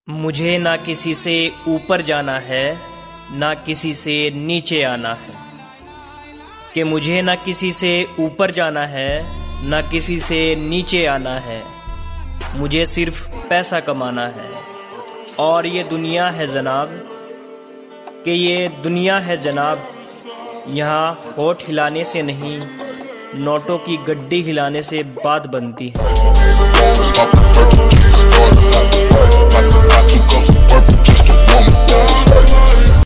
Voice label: Shayari Ringtone